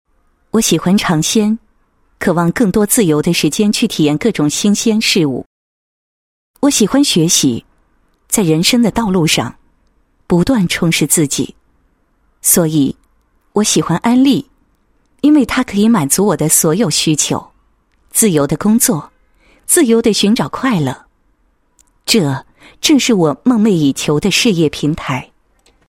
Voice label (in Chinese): 女 独白 宣传; 安利员工独白